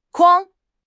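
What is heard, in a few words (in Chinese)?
"筐", Chinese; HSK6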